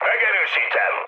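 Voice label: player killed enemy, mood med, Hungarian; War Thunder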